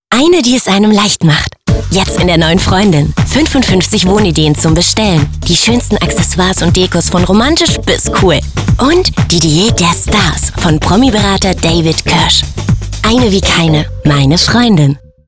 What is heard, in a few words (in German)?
Werbung